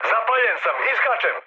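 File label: Serbian on fire mood med, speech; War Thunder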